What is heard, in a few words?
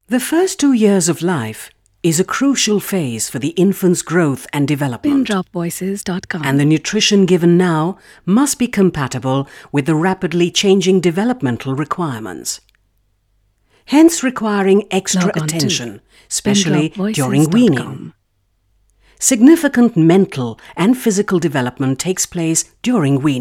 English